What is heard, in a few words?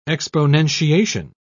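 "exponentiation", English, British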